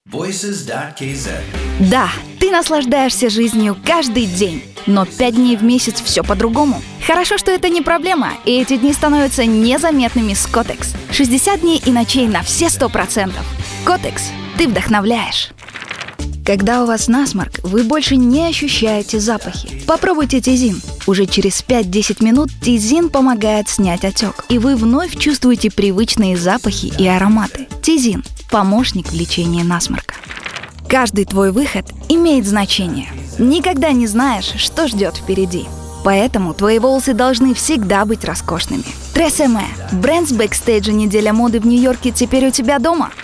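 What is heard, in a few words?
Russian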